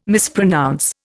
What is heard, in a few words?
"mispronounce", Indian